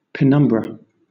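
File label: "penumbra" English, British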